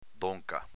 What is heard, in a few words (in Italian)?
"donca", Veneto; avv. dunque